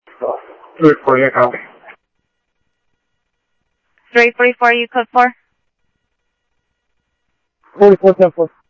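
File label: Sheriff West County, scanner audio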